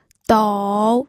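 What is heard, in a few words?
"dou", pinyin, third tone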